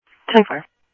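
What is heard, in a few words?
police department, scanner audio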